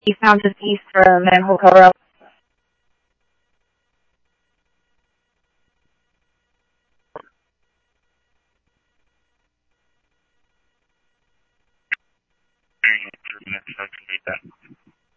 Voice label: Police, scanner; H-Scottsdale; ScottsdalePolice